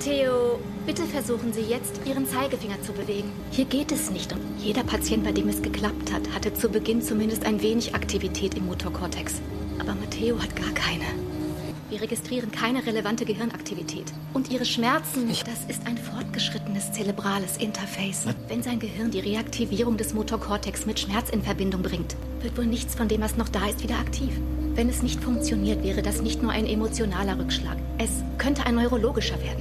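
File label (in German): Robotik Ärztin; New Amsterdam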